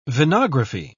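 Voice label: "venography", British English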